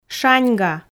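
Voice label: "шаньга", Komi, female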